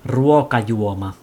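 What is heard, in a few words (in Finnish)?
Ruokajuoma, Finnish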